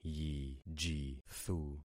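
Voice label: "yijithu", concatenated syllables; Affixing experiment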